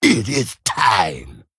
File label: Male Kobold Attack